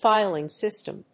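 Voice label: "Filing system", English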